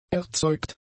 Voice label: "erzeugt", German; adjectives